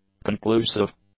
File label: "conclusive", English; adjectives